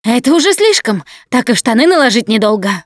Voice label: transition close, russian, Survivor Voice Producer